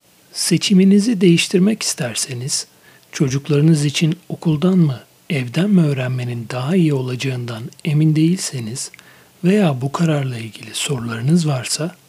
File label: Turkish